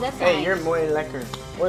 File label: talking dutch